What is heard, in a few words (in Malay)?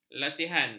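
"latihan", Malay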